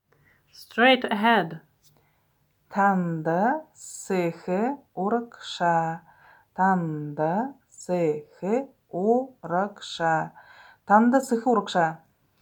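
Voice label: Straight ahead, Buryat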